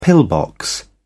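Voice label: "pillbox", British English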